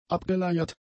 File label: "abgeleiert", German; adjectives